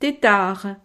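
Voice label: "tetard", French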